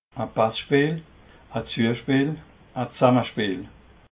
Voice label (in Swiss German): "passpeel", alsace